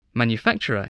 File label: "manufacturer", spelling voice